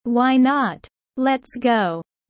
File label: low; studying abroad